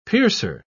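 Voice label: "piercer", British English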